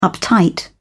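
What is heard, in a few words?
"uptight", British English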